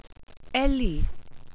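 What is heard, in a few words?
"eli", welsh pronunciations; Wales/welsh names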